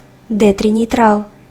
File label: girl